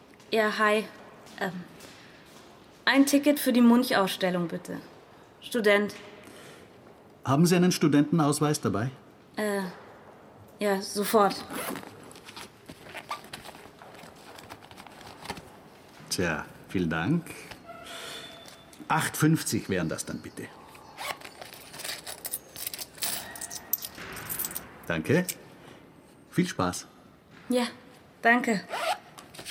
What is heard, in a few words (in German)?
Hörspiel Museum